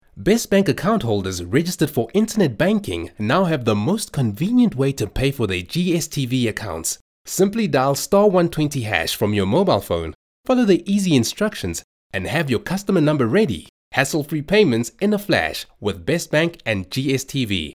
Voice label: English Skill Promo; OSM Talent